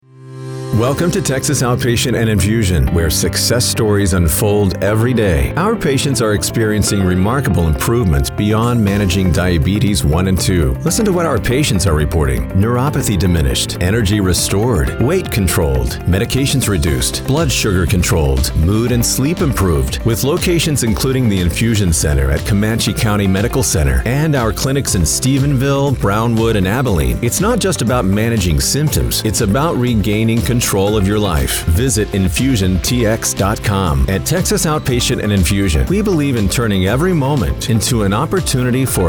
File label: Narration Only; Texas Outpatient and Infusion